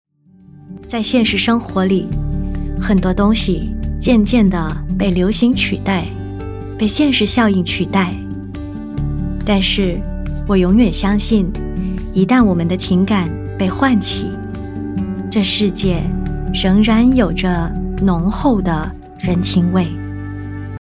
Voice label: Mandarin Narration, Style: Natural, Conversational and Warm; Mandarin Narration Style 4